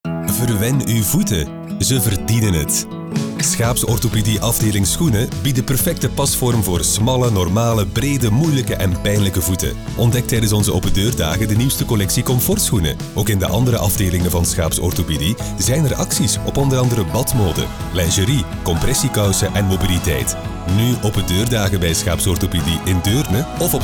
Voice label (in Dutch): radiospot; schaeps reg; opendeur nieuwlang; studio sonart